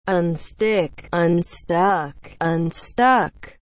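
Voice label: "unstick", English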